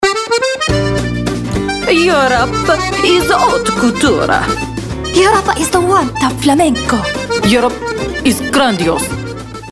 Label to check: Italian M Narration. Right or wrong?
Wrong